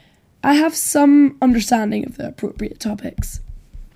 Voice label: interviewee female